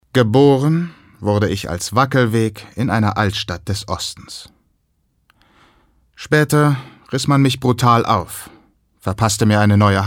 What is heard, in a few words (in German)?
Schauspiel Neutral